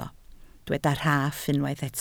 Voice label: "rhaff", Welsh